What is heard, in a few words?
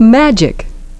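"magic", English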